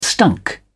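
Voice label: "stunk", English, uk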